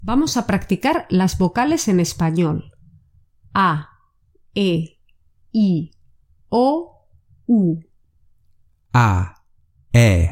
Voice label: Listening Practice Pronunciation, spanish